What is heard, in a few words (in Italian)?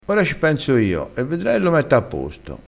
"ora", crespinese